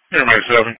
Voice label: EMS Dispatch

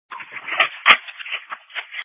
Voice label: Water / Sewer Dispatch

